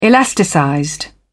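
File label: "elasticized", British English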